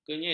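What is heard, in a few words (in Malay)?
"kenyit", Malay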